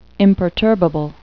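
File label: "imperturbable", English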